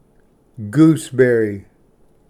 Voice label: "ᎤᏯᎶᏘᏍᏗ", Pronunciation; large-gooseberry

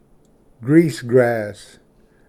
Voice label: "ᎧᏁᏍᎩ ᎢᏳᏍᏗ", Pronunciation; greasegrass

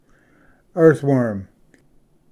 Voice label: "earthworm", Pronunciation; ᎤᏥᏯ

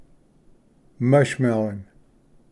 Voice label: "ᎦᏫᏒᎩ", Pronunciation; mush-melon

